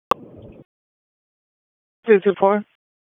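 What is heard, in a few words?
Police Northeast District Dispatch; Raleigh; wake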